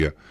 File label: Arabic